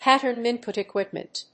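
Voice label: "Patern input equipment", English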